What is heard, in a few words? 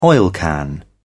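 "oilcan", British English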